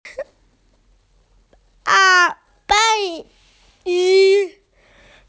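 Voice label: "aunt", Mandarin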